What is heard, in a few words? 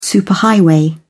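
"superhighway", English, British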